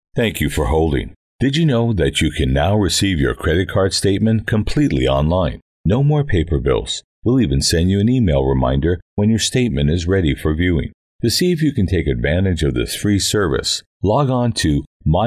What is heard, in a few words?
Telephone Greeting VO Samples